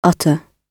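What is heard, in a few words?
"utter", British English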